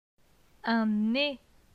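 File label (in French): "Un nez", French; phonetique; les voyelles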